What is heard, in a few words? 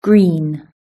"green", British English